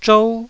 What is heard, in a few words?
"zhou1", Taiwanese syllable